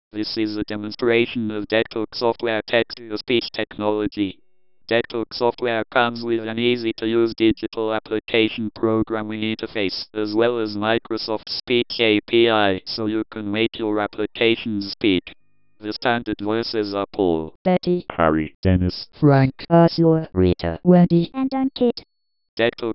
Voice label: UK English DECtalk 4.60